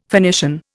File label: "Phoenician", Indian; Dictionary